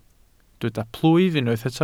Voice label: "plwyf", Welsh